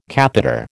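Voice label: "catheter", American English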